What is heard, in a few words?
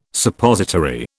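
"Suppository", British English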